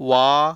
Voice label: "va", consonant

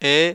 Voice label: "e", vowel

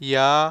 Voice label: "ya", consonant

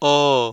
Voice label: "oa", vowel

